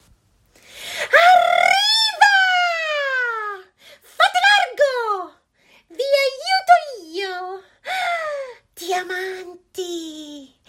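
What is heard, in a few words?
Italian, Characters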